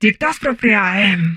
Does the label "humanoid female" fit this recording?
Yes